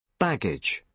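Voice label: "baggage", British English pronunciation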